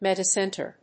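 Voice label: "metacenter", English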